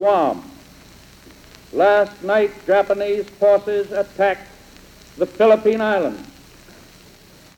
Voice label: Speech, New York; american english dialect; Franklin D Roosevelt

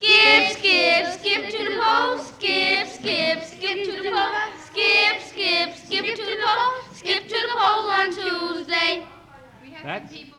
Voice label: Political street speakers and kids; tony schwartz

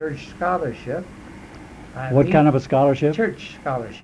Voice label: Oral history, american english dialect; Colorado; Wayne Aspinall

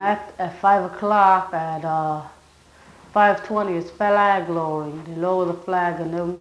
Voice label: Interview with 15 year old male New York New York; american english dialect

